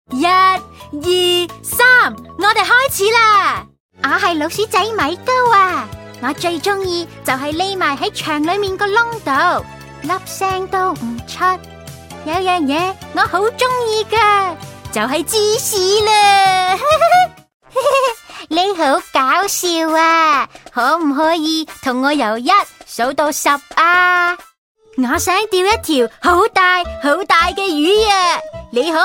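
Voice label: Canto toys, Cantonese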